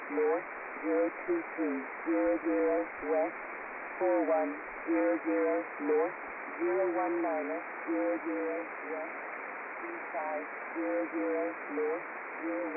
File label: USB 2.4khz Shannon Volmet; Belka-DSP; Belka DX